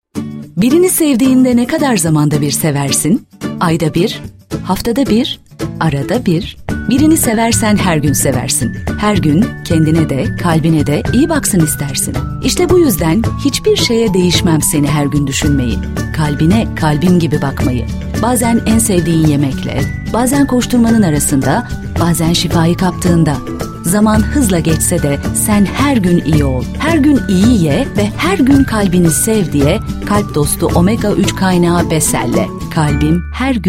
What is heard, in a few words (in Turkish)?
reklam